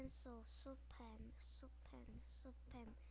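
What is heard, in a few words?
"pencil", spoken word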